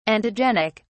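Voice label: "antigenic", British English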